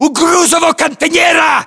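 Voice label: landmark near cargo container, battlechatter, Russian; localized english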